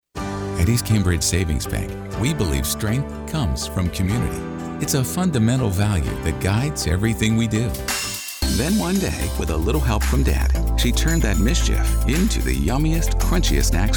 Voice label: Commercial